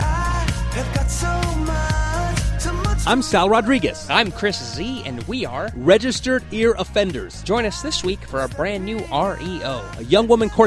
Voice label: promo; registeredearoffenders